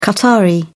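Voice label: "qatari", British English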